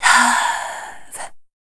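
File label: vocal female, Dance Vocals, spoken words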